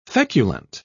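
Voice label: "feculent", British English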